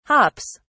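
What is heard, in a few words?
"hops", British English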